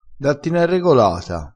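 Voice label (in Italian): "regolata", vocabolario dialettale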